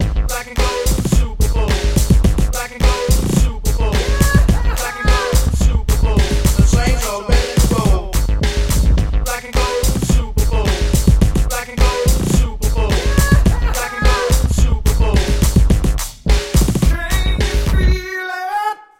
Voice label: Radio spot; Saints Black and Gold